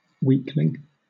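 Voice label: "weakling", British English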